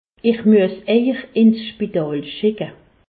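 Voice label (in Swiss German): "spital", Alsatian; je suis obligé de vous envoyer à lhôpital; medecine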